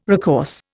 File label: "recourse", Indian